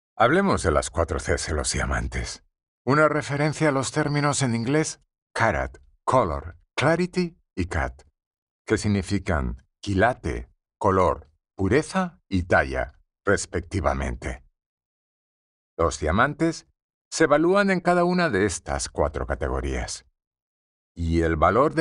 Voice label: spanish e learning